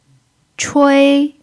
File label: "chui1", chinese